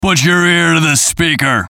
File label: VOICE COMICAL; Comedy; Radio